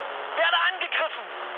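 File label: German aircraft damaged mood med, speech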